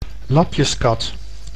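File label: "lapjeskat", Dutch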